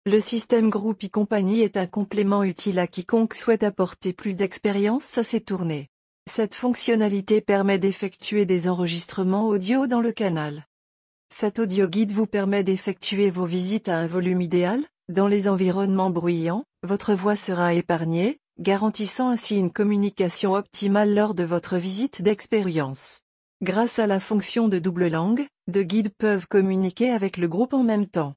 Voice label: Speech, Audioguide; Groupy Company; FR